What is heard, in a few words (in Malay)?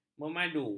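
"memadu", Malay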